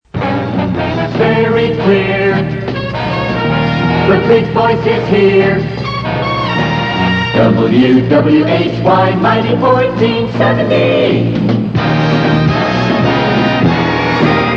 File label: big voice